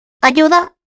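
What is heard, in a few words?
Turret turret pickup, Spanish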